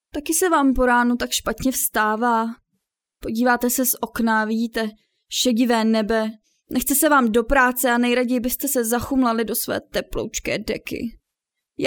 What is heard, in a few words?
female czech voice over character